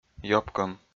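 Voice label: "ёпкӧм", Komi, male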